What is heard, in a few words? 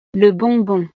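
"le bonbon", French; snacks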